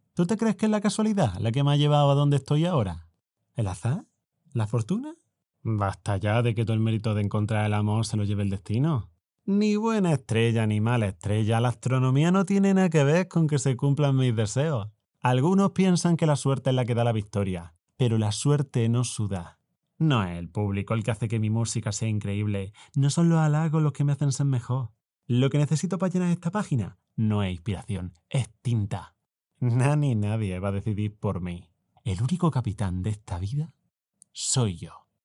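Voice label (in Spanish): Andaluz, locución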